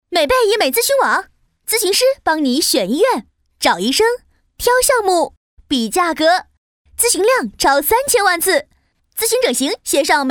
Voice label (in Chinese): 广告; 美呗